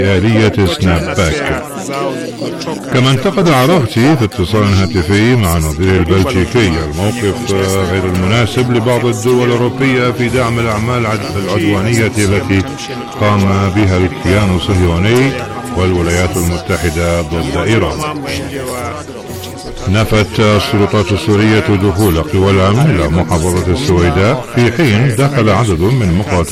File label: English